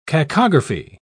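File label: "cacography", British English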